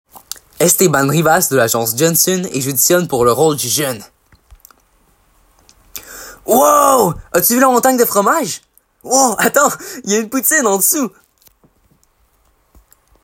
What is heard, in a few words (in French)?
Pub fromage